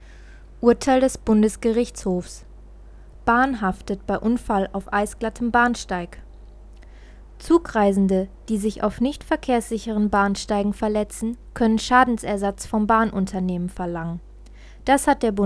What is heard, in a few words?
news